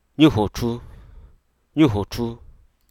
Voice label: hruso, forecast foretell

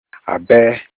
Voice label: knife killing, Olukumi

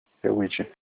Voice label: Olukumi leaf; eweji

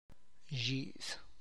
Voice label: tree branch, tlacochahuaya

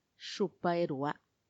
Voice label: "xupaerua", twentysix; veintiseis

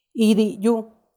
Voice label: "idiyu", smell of urine

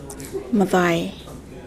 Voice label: ocean, Yapese

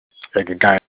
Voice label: Olukumi box basket

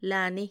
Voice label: "lani", with

